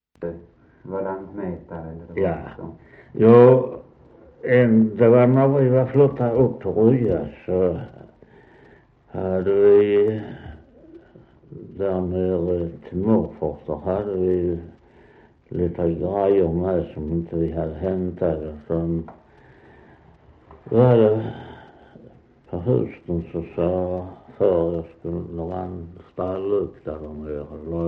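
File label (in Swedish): Västra Torup Skåne, dialekter; Folkminnen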